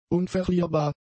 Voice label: "unverlierbar", German; adjectives